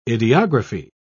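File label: "ideography", English, British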